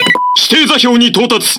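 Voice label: Speech Autopilot Checkpoint, Japanese; World Of Warships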